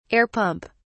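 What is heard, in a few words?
"air pump", British English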